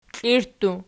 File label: "klirttu", Archi